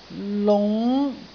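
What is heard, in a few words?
"lung5", Cantonese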